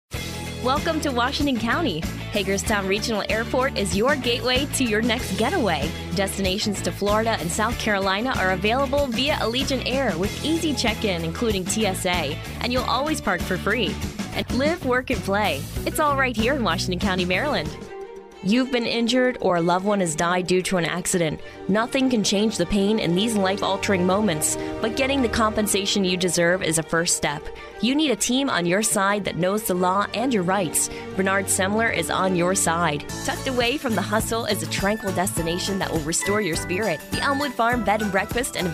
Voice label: Women Commercial